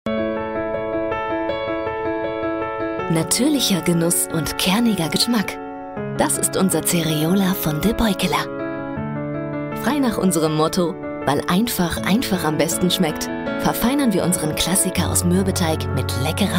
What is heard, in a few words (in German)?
imagefilm; debeukelaer cereola